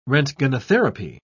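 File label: "roentgenotherapy", British English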